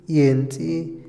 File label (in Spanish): rodar, Otomí, hombre; Movimiento; INALI